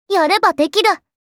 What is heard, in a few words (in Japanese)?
Positive "Yareru", Kawaii Voice